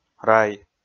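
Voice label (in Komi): "рай", Komi, male; audio dict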